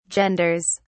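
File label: "genders", British English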